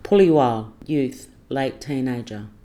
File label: "puliwarl" youth late teenager